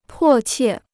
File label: "迫切", Chinese